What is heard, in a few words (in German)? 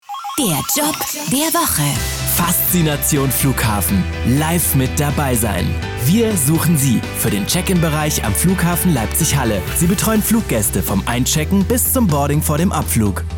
Radio Trailer; Radio Brocken; Job der Woche; Flughafen HAL L Flughafen